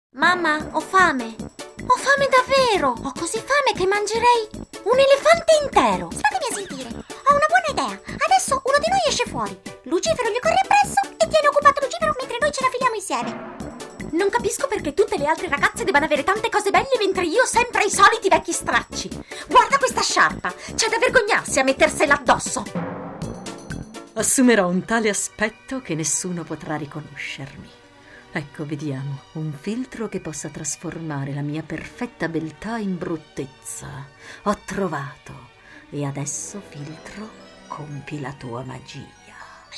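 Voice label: doblaje, Italian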